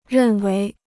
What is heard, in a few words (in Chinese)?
"认为", Chinese